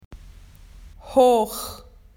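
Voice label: "high", Australian English; PictureDictionary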